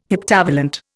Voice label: "heptavalent", Indian

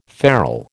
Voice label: "ferrel", American

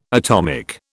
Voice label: "atomic", British

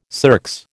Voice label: "circs", American

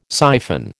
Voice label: "syphon", American

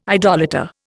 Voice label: "idolater", Indian

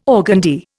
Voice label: "organdie", Indian

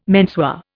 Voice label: "menswear", Indian

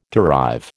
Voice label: "derive", American

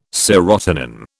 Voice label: "serotonin", British

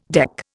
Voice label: "deck", Indian

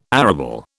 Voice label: "arable", British

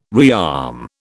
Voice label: "rearm", British

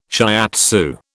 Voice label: "shiatsu", British

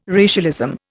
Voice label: "racialism", Indian

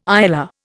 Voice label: "ILR", Indian

